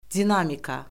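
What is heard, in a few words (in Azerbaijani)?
"dinamika", Azerbaijani; Orfoqrafiya Lüğəti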